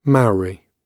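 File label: "mauri" gb, British; BE audio; Māori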